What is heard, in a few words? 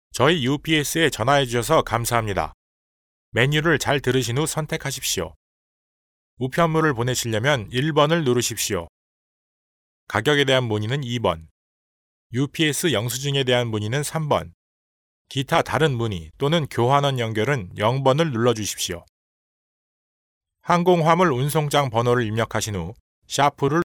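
Korean Male voice over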